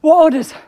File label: english Idle; azurlane hoi4; azurlane sr reforged